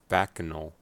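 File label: "bacchanal", American English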